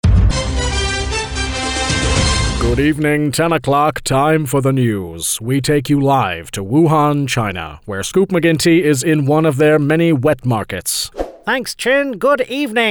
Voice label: Character